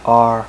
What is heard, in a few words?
"r" male, voice alphabet; Web Clip Empire 150,000